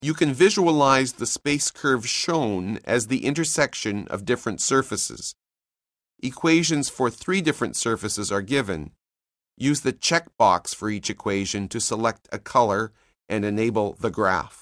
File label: narration; CALCULUS 7E; cengage